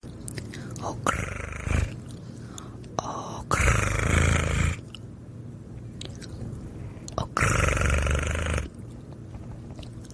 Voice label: okurr asmr

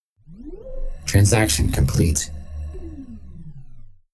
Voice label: transaction complete male informative; spark

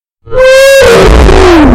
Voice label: weird roblox oof

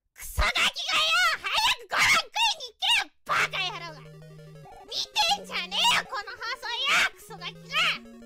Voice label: rage; Pekora

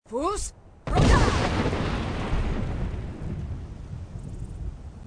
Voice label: fus ro dah female

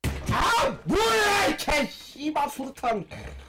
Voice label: korean guy small rage

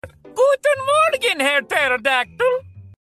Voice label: german pterodactyl

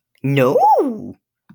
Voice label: "No", high sqeak

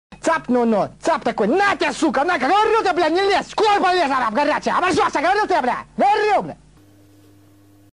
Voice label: russian rage cyka blyat

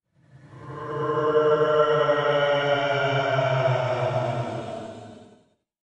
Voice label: bruh sound effect slower

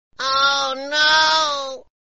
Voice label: oh no sound effect

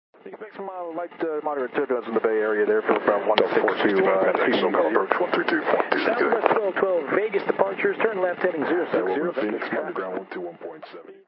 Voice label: the sounds of air traffic control

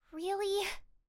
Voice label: really unamused cute anime girl voice

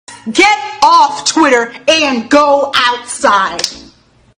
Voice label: shouting "get off twitter and go outside"

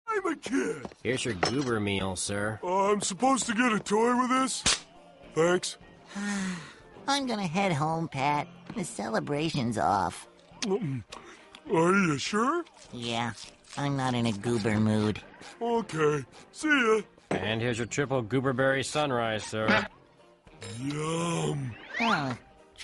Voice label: OH WAITER Earrape; Spongebob

